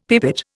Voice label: "pipit", Indian; Dictionary

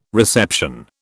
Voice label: "reception", British

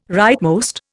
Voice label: "rightmost", Indian

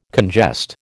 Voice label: "congest", American

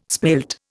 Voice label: "spilt", Indian

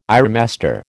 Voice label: "ironmaster", American

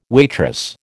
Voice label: "waitress", American

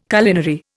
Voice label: "culinary", Indian

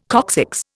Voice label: "coccyx", Indian

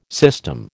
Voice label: "system", American

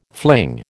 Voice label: "fling", American

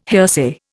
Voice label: "hearsay", Indian

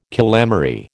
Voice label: "calamary", American

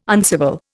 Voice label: "uncivil", Indian

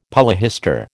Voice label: "polyhistor", American

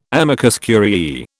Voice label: "amicus curiae", British

